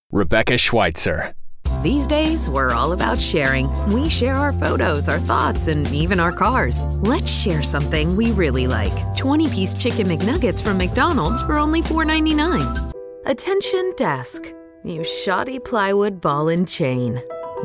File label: Commercial